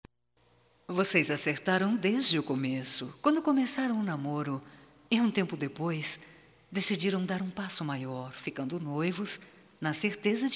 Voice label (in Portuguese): Voz, fem; CASAMENTO; Telemensagens 2017; Só Voz